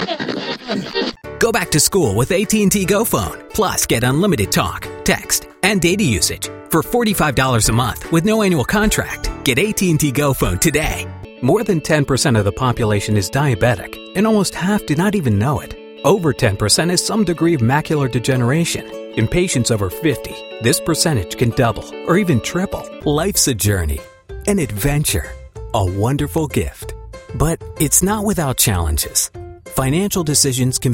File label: Commercial